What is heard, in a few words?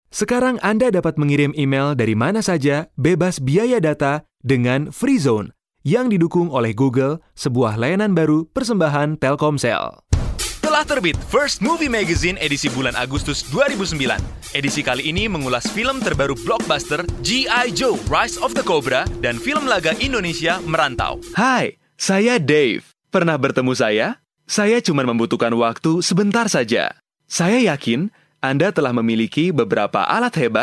male indonesian, indonesian and english with indonesian accent